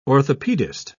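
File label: "orthopedist", British English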